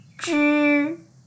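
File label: "zhi1", Mandarin Chinese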